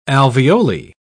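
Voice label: "alveoli", British English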